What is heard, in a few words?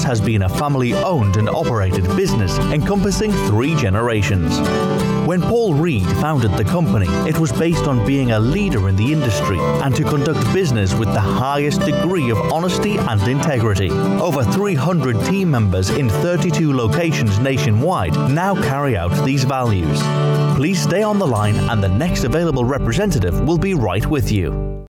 On-Hold